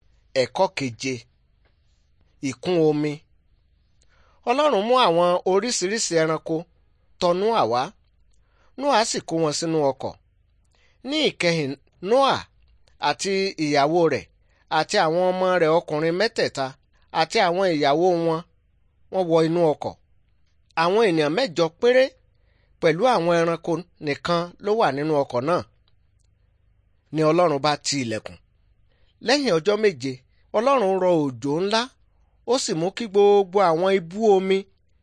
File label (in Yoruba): good news yoruba